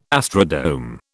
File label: "astrodome", British